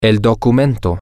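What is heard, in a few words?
the document, Spanish, male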